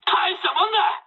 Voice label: jp trick, speech jp